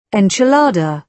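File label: "enchilada", British English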